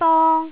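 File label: "dong1", Jyutping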